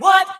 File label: "what", voice sample